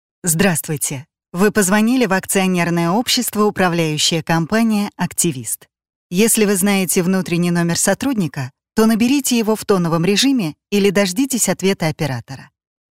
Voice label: Диктор на АТС акционерное общество; ДИКТОРЫ ДЛЯ IVR

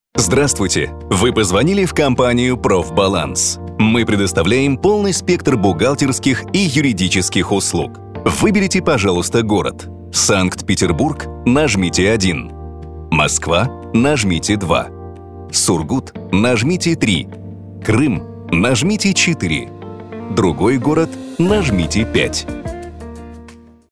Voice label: Меню IVR бухгалтерские и юридические услуги; ДИКТОРЫ ДЛЯ IVR

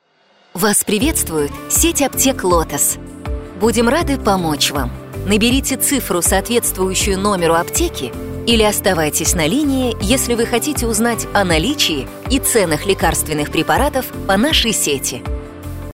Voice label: Голосовое меню IVR для сети аптек; ДИКТОРЫ ДЛЯ IVR

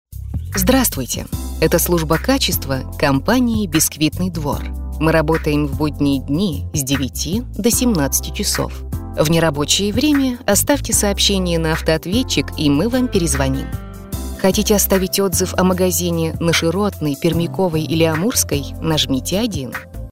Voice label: Запись диктора на номер пекарни; ДИКТОРЫ ДЛЯ IVR

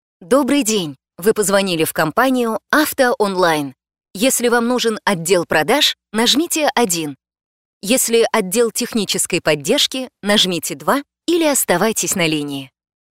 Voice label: Голосовое меню автомобильной компании; ДИКТОРЫ ДЛЯ IVR

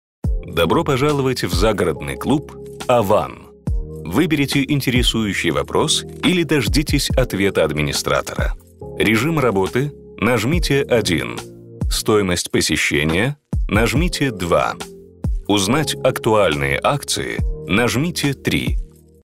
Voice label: Информатор в загородный клуб отдыха; ДИКТОРЫ ДЛЯ IVR

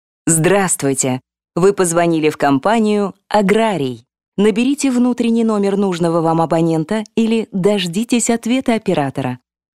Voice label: Автоответчик аграрной компании; ДИКТОРЫ ДЛЯ IVR

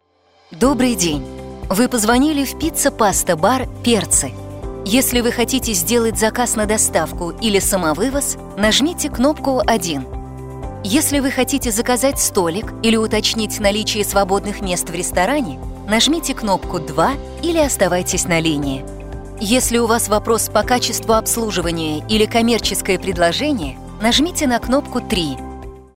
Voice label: Голосовое сообщение для Пицца паста бар; ДИКТОРЫ ДЛЯ IVR

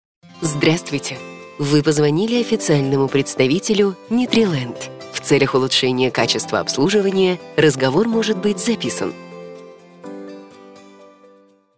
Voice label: Автоответчик врача нутрициолога; ДИКТОРЫ ДЛЯ IVR

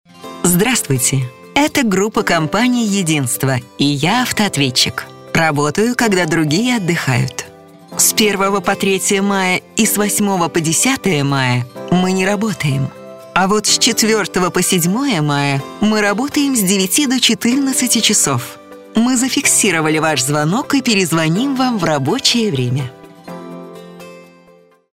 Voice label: Автоответчик компании в выходные дни; ДИКТОРЫ ДЛЯ IVR

